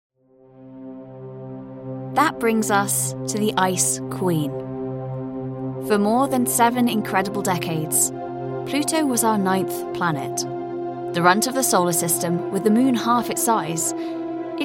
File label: Documentary